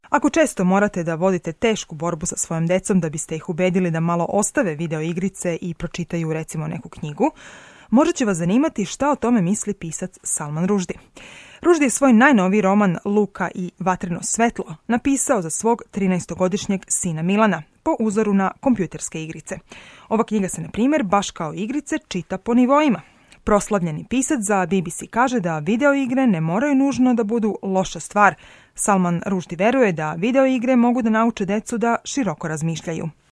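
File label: Serbo-Croatian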